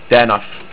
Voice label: Street, estonian